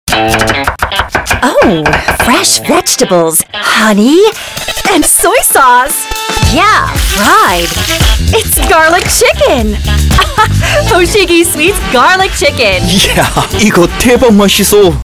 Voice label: Commercial; Hosigi